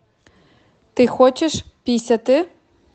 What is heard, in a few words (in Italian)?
Ucraino